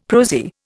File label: "prosy", Indian; Dictionary